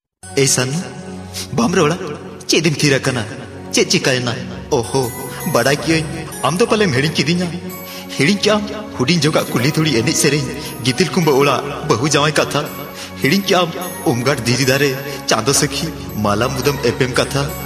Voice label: Voice Tones